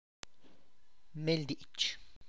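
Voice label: ground memela, Tlacochahuaya Zapotec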